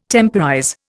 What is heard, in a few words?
"temporize", Indian